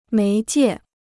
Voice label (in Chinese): "媒介", Chinese